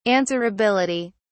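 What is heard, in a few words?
"answerability", British English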